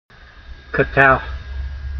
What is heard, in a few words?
"qatal", Hebrew